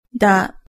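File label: "da", Japanese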